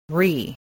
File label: "ray", english